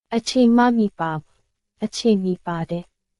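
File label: Myanmar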